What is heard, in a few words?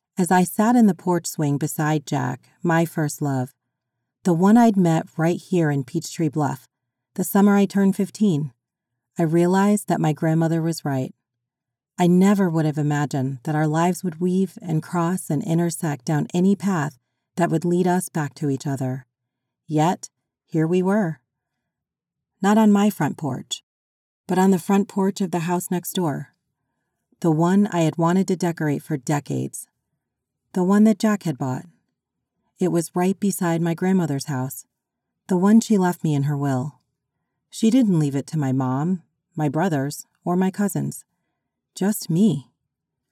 Southern Side Audiobook